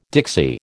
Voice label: "Dixie", American